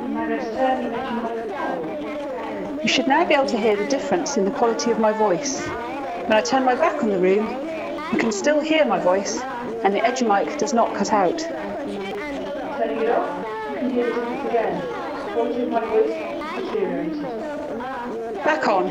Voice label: Teacher Classroom with Babble 60dB SPL Mixed